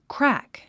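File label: "crack", English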